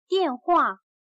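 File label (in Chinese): "电话", Chinese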